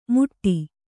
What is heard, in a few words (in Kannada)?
"ಮುಟ್ಟಿ", Kannada